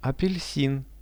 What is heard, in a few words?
"apelsin", Russian; апельсин